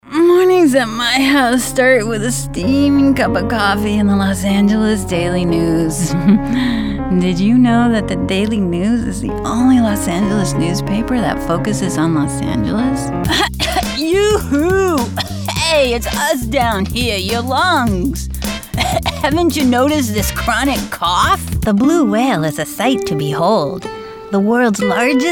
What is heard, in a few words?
Commercial